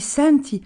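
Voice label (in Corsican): "senti", Gravona, Rocca (sud); elle (/il) entend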